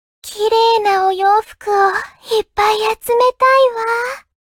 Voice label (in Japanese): loading, ja; cookie run